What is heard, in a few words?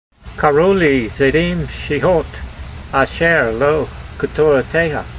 voice, hebrew language; Psalm 119:85; karoo-lee zaydeem sheehot, asher lo ketorateyha